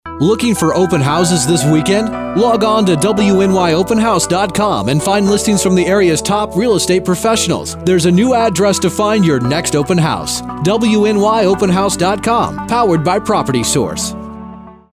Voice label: radio commercial